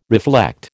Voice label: "reflect", American